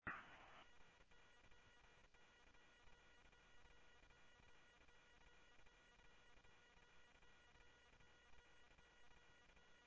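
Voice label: Police radio; ScottsdalePolice; H-Scottsdale; SCTSDLE PD - PD2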